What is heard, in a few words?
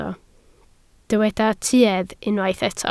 "tuedd", Welsh